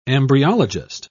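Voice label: "embryologist", British English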